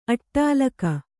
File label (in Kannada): "ಅಟ್ಟಾಲಕ", kannada